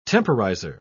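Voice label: "temporizer", British English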